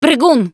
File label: warn hunter, russian, Survivor Voice Producer